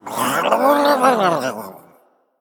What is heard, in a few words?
Male Murloc Ghost Play